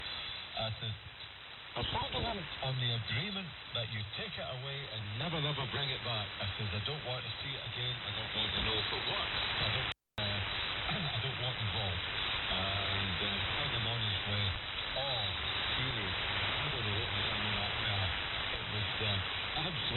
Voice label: Pirate Station 6290khz